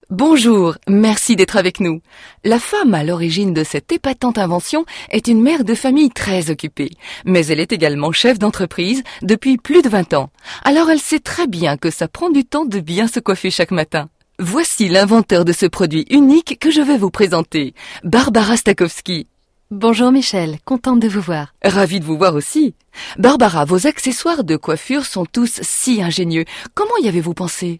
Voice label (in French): tele achat